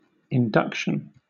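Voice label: "induction", British English; pronunciations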